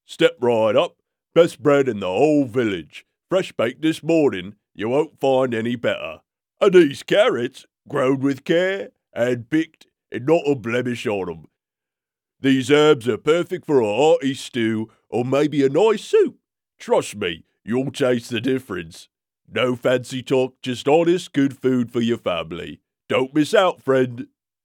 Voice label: Medieval Shopkeeper; Harrisonburg Virginia

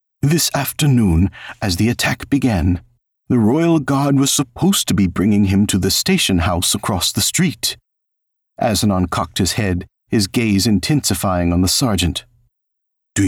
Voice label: Audiobook, Male voiceover talent

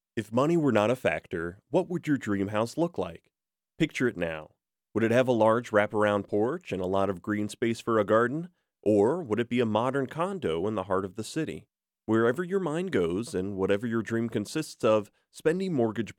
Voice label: Financial Ad Read; Harrisonburg Virginia

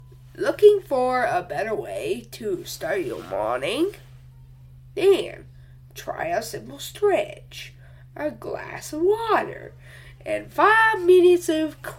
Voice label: commercial

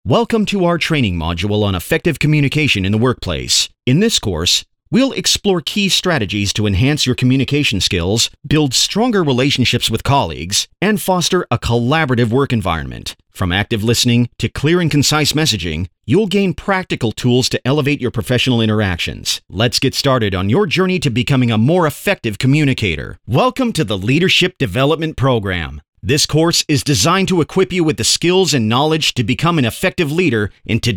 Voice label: Corporate eLearning

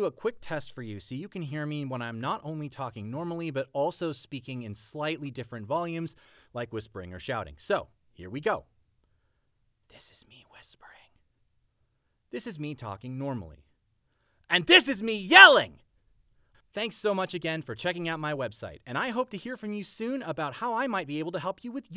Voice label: Raw Audio

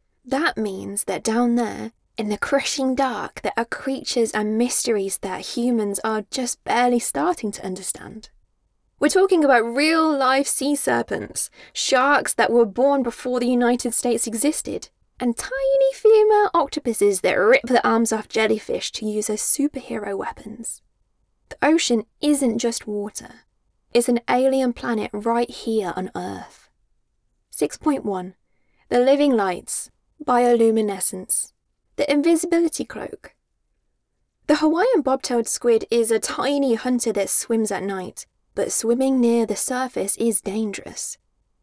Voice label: Non Fiction Dramatic and engaging

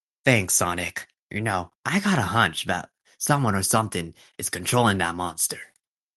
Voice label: voice message, male

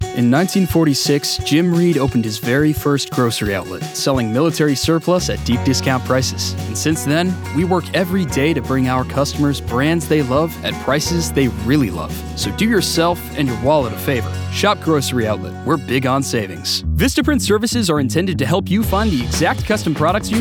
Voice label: Commercial

